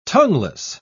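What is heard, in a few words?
"tongueless", British English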